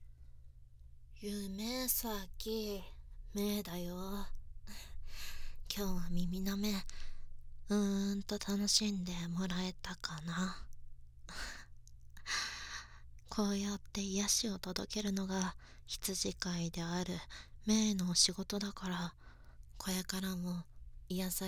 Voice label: フリートーク; 耳舐め&吐息ぐっぽり10時間♪

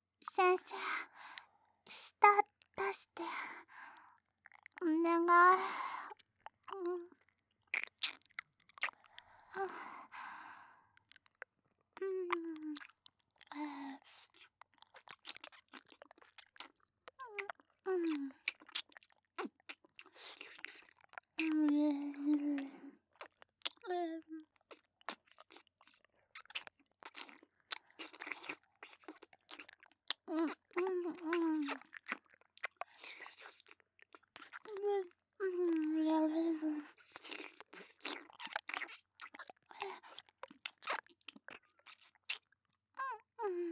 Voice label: 耳舐めされながら生意気JKにディープキス・クンニし、正常位と騎乗位で中だしHする音声; 生イキメスガキJK

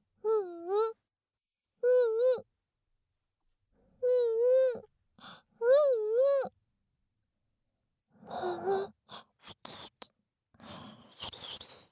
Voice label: 効果音なし, ASMR; ぞりぞり耳舐め; しゅきしゅき大しゅき -無条件に君を愛してくれるロリ声わんこ娘

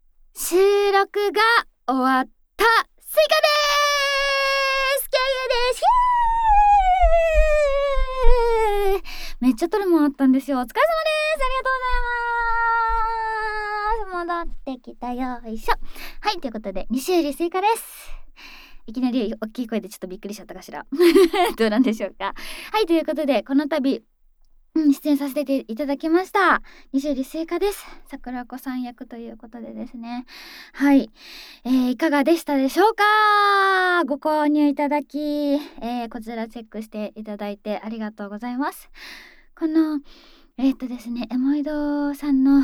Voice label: フリートーク; 【リアルエッチ感】北条櫻子はママになりたい～さくらママとのエッチな大人のバブ活保育園♪包容力MAX母性に甘やかされながら全肯定バブみ幸せ安眠あまトロえっち漬け～

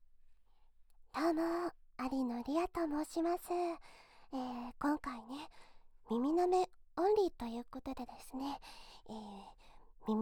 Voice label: フリートーク; 耳舐め&吐息ぐっぽり10時間♪

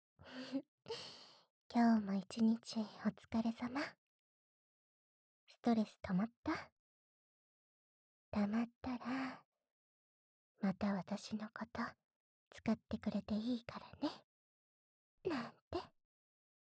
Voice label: おやすみボイス; システムボイス; KU100

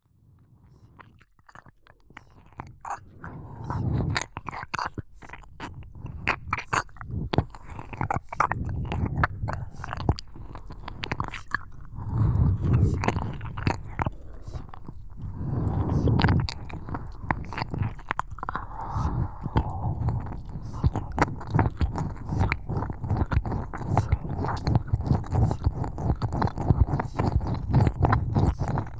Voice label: どこまでもイケる、男の潮吹き絶頂（射精～潮吹きパート）, ASMR; 催眠チャレンジ!男の潮吹き～女の子みたいに白眼を剥いてお潮吹きながら頭真っ白～【無声囁き/低周波リラクゼーション】

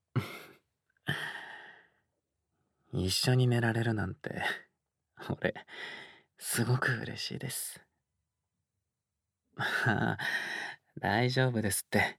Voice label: おやすみボイス; 犬系男子ハルくんとご奉仕ペット契約〜お世話好きの彼にいっぱいわんわんおまんこされちゃいました〜

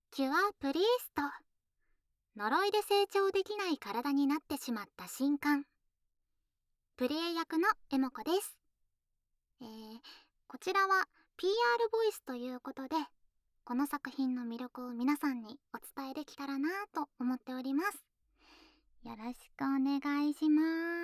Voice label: PRボイス

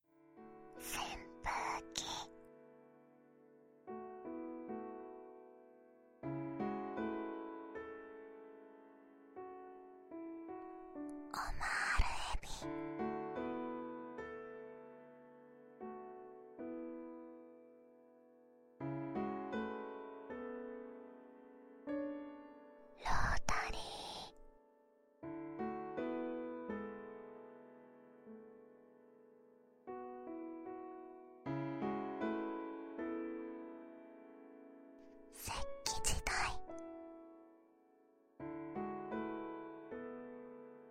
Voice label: 単語のみBGMあり; バイノーラル; かわいい幼なじみに耳元でささやかれるえ〇ちシャッフル睡眠法vol.1

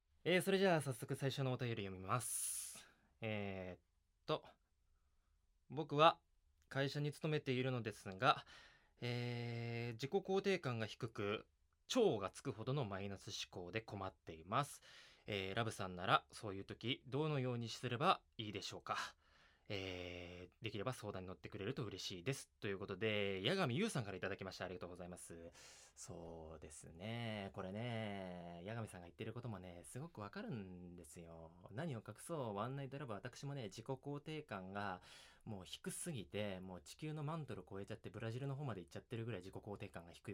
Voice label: フリートーク; ダメダメ後輩君は夜だけお上手～先輩は俺じゃないと満足できないでしょ～

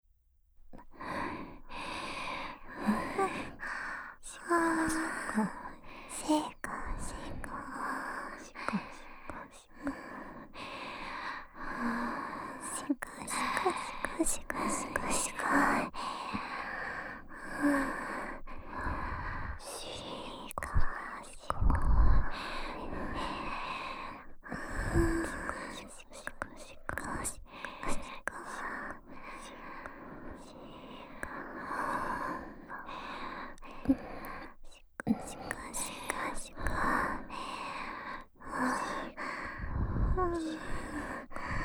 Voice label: 転校生姉妹のW耳元密着エロ吐息&Wシコシコ手コキ煽りループトラック, ASMR; 銀髪転校生姉妹

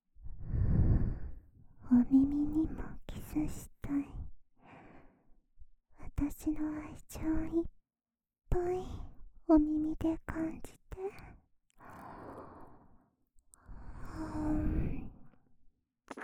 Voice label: 囁き耳キス耳舐め, ASMR; 好き好き手コキ

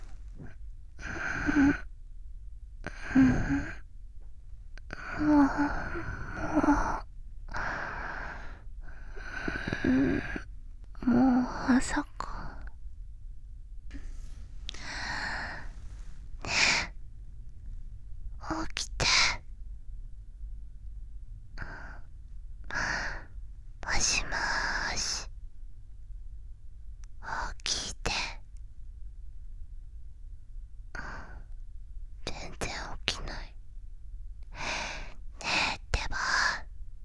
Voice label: おはようボイス バイノーラル

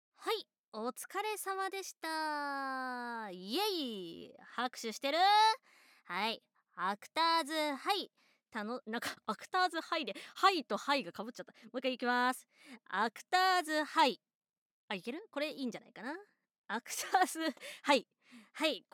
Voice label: フリートーク; アクターズハイ; 葛ノ葉夕菜先生のHな演技レッスンで狂い壊され完全敗北

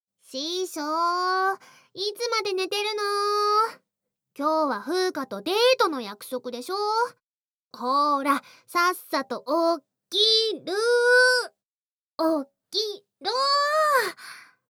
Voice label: 目覚ましボイス（らぶらぶ版）; フーカの目覚ましボイス; 巨乳ドスケベ錬金術師とその母親がチンカス汚ちんぽに媚び媚びご奉仕してくれるお話♪; KU100

